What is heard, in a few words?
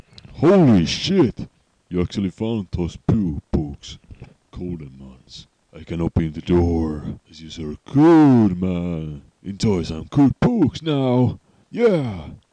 library voice